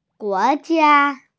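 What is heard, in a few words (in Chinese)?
"guo2jia1", Mandarin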